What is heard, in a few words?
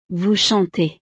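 "vous chantez", French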